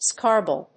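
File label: "scrobble", English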